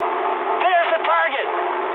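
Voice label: English near waypoint mood high; War Thunder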